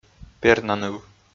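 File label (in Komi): "пернаныв", Komi, male; audio dict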